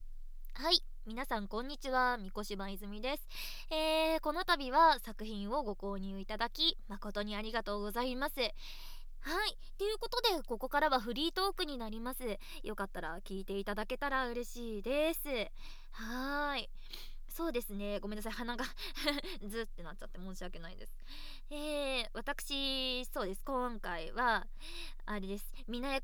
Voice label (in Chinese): 自由谈话; 御子柴泉